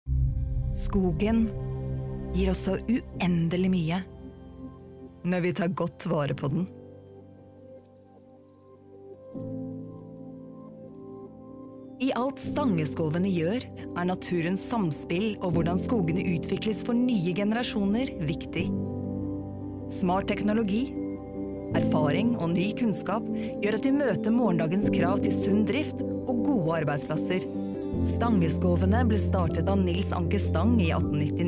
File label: norwegian narration, norwegian voice actors